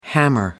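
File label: "hammer", English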